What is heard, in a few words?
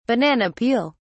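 "banana peel", British English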